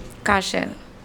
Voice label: "kaSar", Andamanese